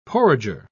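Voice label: "porringer", British English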